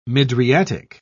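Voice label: "mydriatic", British English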